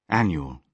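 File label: "annual", British English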